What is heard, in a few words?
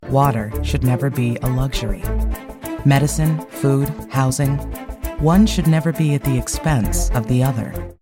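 Narration matter of fact warm caring intelligent soothing sultry calming, Corporate Narration Voice Over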